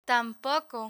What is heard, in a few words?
"tampoco", Spanish